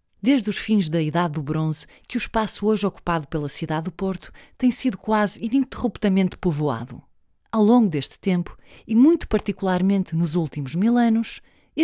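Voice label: Narration, Portuguese, European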